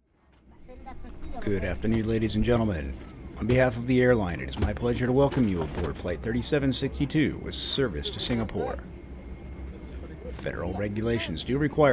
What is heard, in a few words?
foreigner